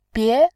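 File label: "bie", Mandarin Chinese, tone 2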